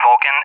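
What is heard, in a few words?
"Vulcan", English; USA; AirDefence; DCS; Allied Flight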